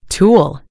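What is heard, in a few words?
"tool", British English; gcompris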